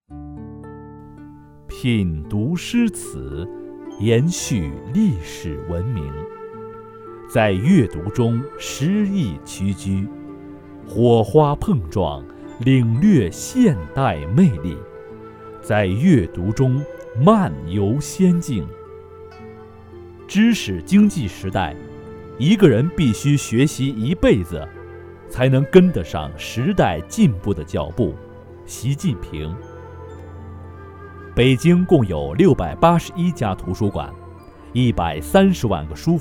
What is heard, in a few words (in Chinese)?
男 专题, 国语男声配音, 浑厚; 北京读书活动